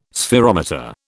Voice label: "spherometer", British